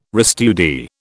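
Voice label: "restudy", British English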